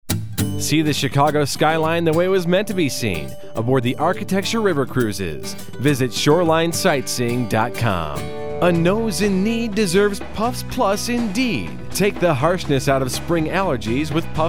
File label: commercial